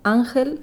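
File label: "angel", Spanish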